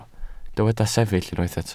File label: "sefyll", welsh